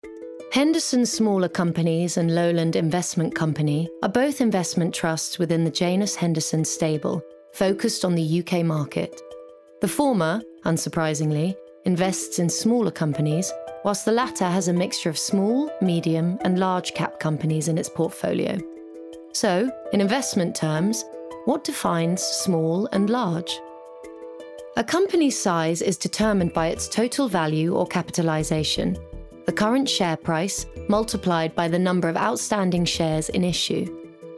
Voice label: Corporate